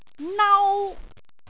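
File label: "nau1", Cantonese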